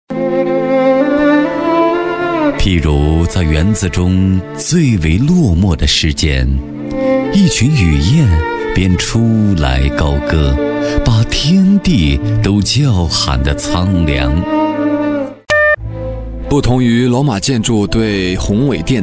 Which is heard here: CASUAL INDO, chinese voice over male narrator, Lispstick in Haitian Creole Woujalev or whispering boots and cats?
chinese voice over male narrator